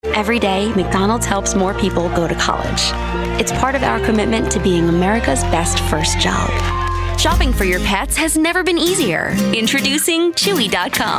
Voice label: Commercial

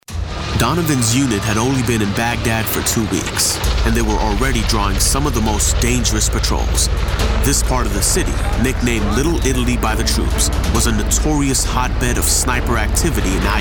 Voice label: TV Narration

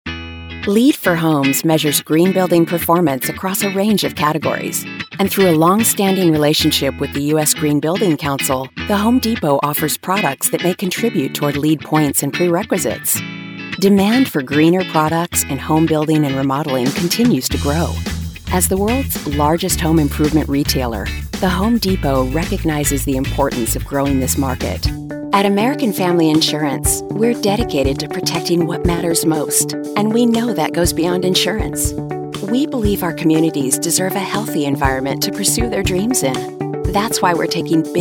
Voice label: Corporate Narration

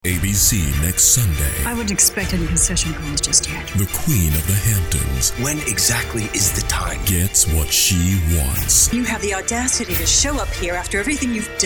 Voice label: Promo

